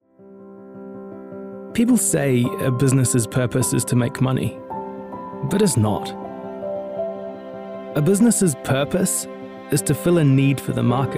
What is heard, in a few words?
Power Voice